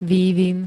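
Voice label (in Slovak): "vývin", Slovak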